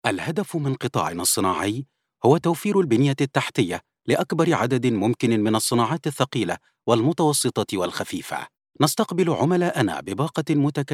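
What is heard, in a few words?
M, Arabic, Egyptian, male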